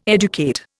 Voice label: "educate", Indian

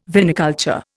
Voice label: "viniculture", Indian

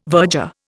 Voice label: "virger", Indian

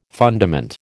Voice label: "fundament", American

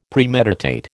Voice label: "Premeditate", American

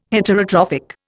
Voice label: "Heterotrophic", Indian

